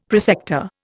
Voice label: "prosector", Indian; Dictionary